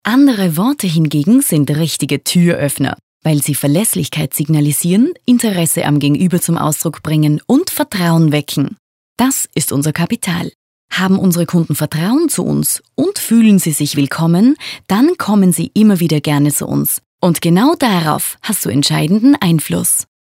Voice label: ELearning